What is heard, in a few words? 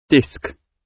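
"disc", British English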